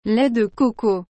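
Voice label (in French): "lait de coco", French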